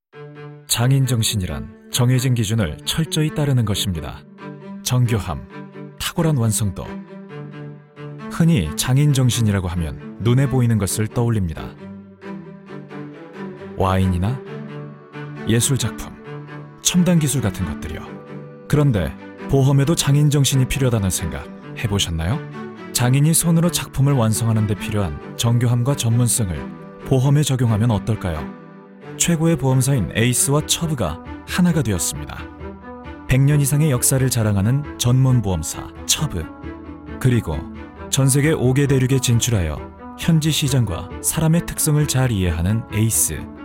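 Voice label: male, Korean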